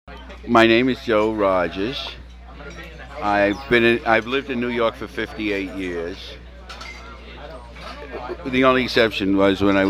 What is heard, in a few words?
Jewish NYC; New York